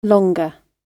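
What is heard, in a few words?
"longer", British English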